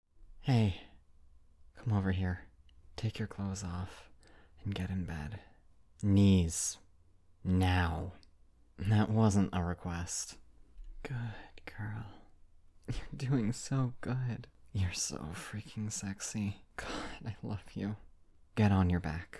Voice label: Cute Dom Phrases